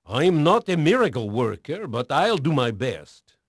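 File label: Portuguese male, voice; Pirates Of The Caribbean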